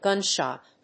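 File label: "gunshot", English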